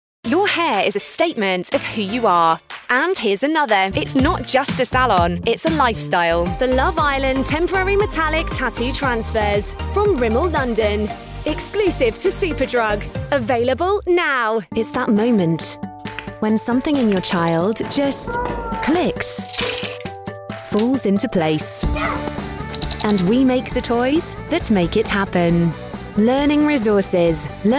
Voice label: Commercials